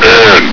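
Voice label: "in!", male; hl2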